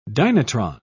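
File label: "dynatron", British English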